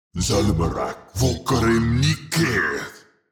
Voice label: Demon king lord spell casting